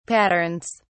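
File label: "patterns", British English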